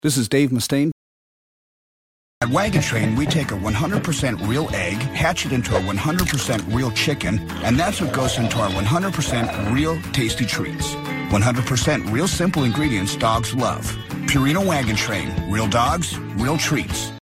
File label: commercial; Innovative LA